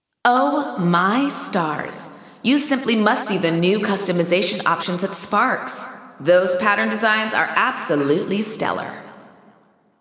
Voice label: Announcements; Sparks Supplies; New Eyes; GAIA